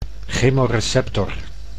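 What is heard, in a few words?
"chemoreceptor", Dutch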